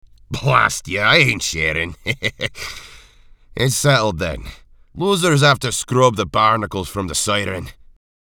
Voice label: Scottish Accent